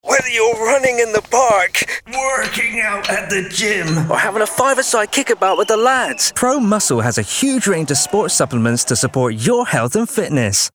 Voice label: English British others